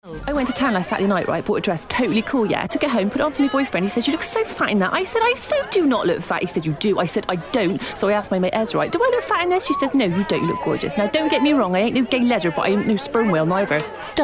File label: west country